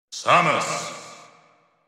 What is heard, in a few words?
announcer voice; smash bros; samus